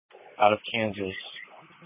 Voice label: Police Patrol 1 (Dispatch)

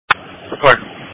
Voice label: EMS Dispatch

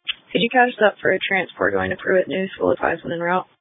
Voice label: EMS Dispatch

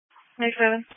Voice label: EMS Dispatch

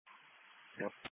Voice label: Police Patrol 1 (Dispatch)

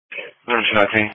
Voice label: Police Patrol 1 (Dispatch)

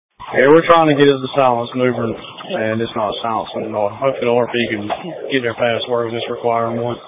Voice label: Fire Tactical 01

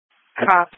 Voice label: Police Patrol 1 (Dispatch)

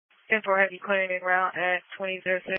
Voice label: EMS Dispatch

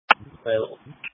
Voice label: Police Patrol 1 (Dispatch)

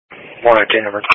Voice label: Police Patrol 1 (Dispatch)

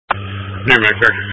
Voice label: EMS Dispatch

